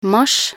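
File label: "mars", Norwegian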